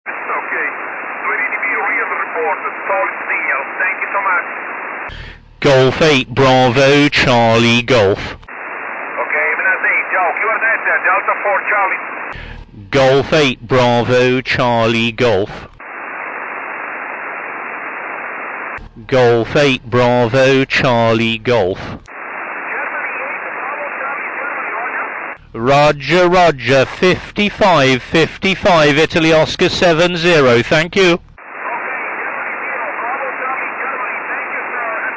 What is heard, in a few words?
qso ssb